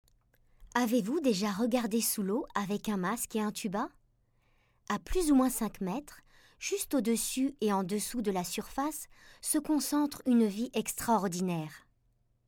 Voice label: Narration doc; Arte

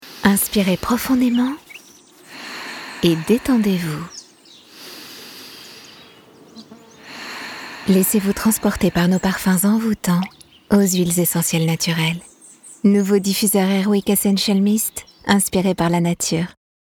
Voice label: PUB TV; Airwick

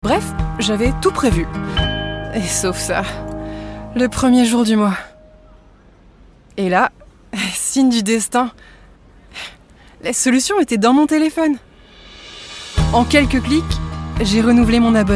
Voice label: pub doublage; KEOLIS

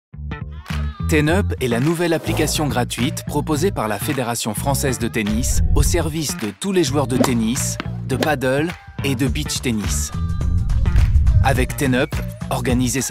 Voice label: H FR Institutionnel; Tenup

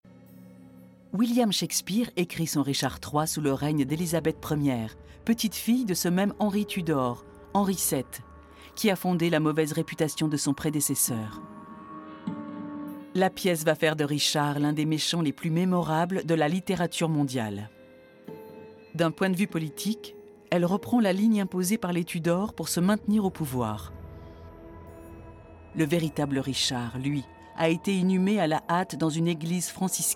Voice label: DOCU; RICHARD III; ARTE

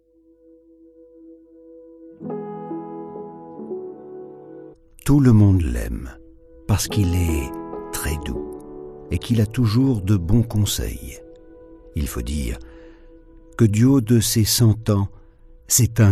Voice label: Conte pour enfant Zen

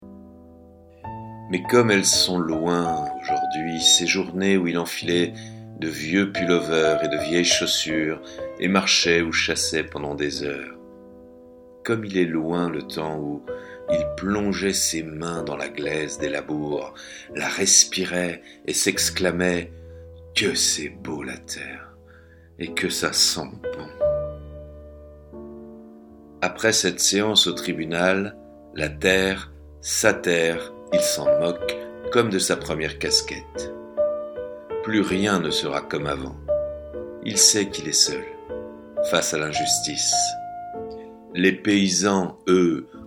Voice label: DOCUMENTAIRE

